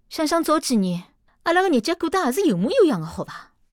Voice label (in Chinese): 偷听对话; c02 6偷听对话 李氏